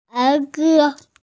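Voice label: "nursery rhyme", Mandarin, hearing-impaired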